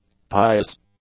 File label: "pious", english; adjectives